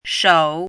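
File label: "shou3" Mandarin Chinese, dipping tone; chinese-voice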